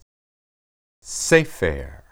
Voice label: "sepher", Hebrew

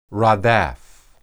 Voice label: "radaph", Hebrew

